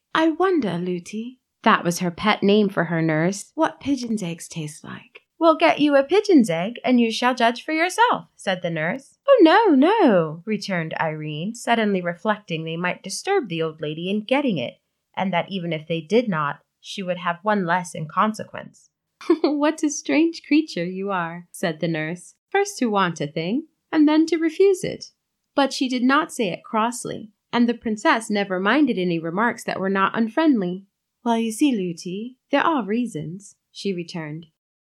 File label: Narration Fairytale